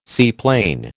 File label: "seaplane", American